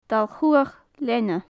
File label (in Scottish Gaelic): "dail-chuach leana", gaelic; Faclan Nàdair